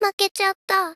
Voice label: Lose Voice; Rei Yumesaki